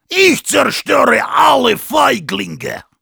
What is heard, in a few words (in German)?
Heavy special completed, de